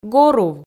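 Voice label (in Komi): "горув", Komi, female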